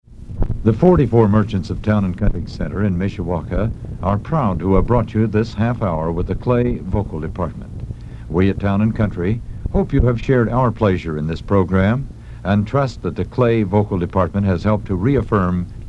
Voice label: Announcer Close; WNDU